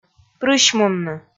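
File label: "прысьмунны", Komi, female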